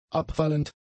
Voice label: "abfallend", German; adjectives

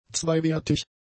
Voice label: "zweiwertig", German; adjectives

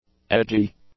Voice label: "edgy", English; adjectives

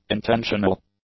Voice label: "intentional", English; adjectives

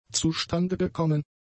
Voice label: "zustandegekommen", German; adjectives

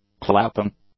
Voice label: "clapping", English; nouns

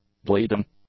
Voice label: "bleeding", English; adjectives

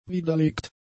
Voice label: "widerlegt", German; adjectives

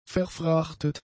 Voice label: "verfrachtet", German; adjectives

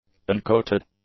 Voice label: "uncoated", English; adjectives

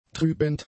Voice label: "trübend", German; adjectives

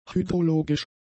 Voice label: "hydrologisch", German; adjectives

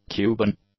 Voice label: "Cuban", english; adjectives

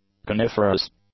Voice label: "coniferous", English; adjectives

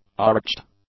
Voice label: "arched", English; adjectives

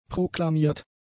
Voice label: "proklamiert", German; adjectives

